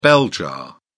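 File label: "bell jar", British English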